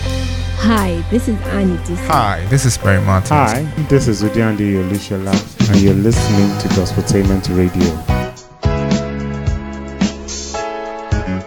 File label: Radio Voice; Gospotainment